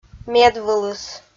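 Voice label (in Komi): "медвылыс", Komi-Zyrian, female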